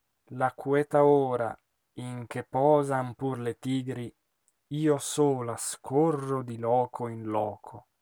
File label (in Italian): espressivo; Attila